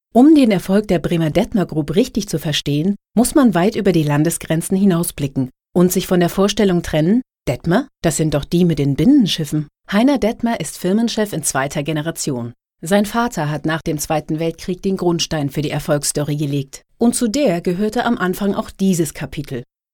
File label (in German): imagefilm; dettmer group